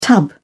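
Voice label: "tub", British English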